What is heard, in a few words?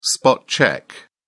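"spot-check", British English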